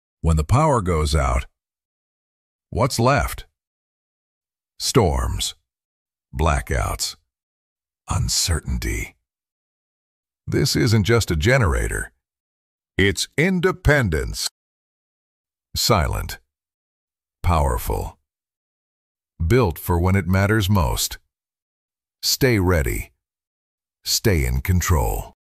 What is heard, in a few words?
ads generator